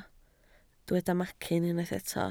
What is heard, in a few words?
"macyn", Welsh